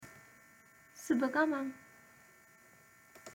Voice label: sinhala suba gaman farewell goodbye safe travels